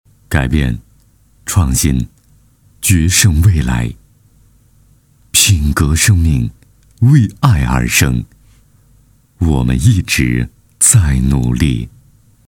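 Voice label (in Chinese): 【片头】男-沉稳温情; 改变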